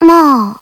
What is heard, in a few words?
negative, radio; csgo; custom radio